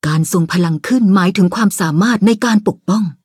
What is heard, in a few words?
levelup, th